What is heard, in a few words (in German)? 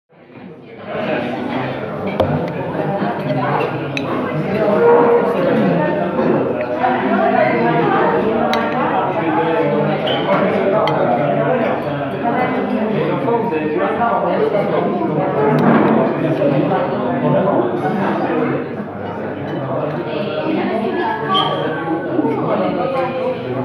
Sprachmemo